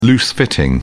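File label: "loose-fitting", British English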